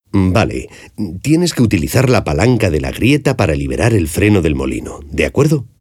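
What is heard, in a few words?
Spanish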